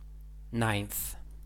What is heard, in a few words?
"ninth", English